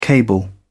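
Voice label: "cable", British English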